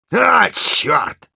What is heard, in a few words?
negative vocalization, ru; Engineer